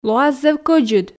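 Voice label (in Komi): лоас зэв кoдзыд, Komi, female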